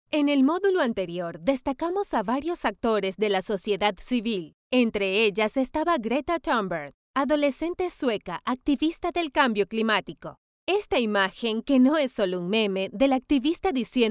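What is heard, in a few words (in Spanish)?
e-learning, Venezuela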